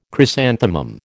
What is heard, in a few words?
"chrysanthemum", American